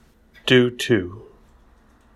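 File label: "due to", English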